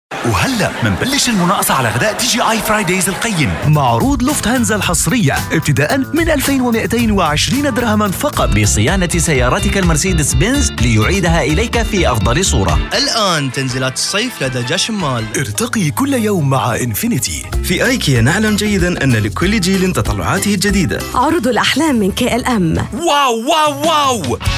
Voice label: radio Arabic; radio showreel; AUDIO POST Production